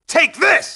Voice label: "move up", grunt; nimble grunt